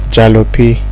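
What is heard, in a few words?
"jalopy", Nigerian Pidgin, male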